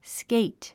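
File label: "skate", English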